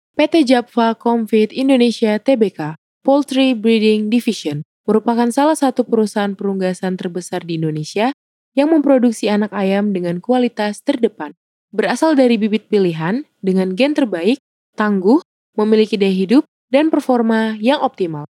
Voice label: INDO FORMAL